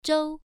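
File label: "zhou", Mandarin Chinese, first tone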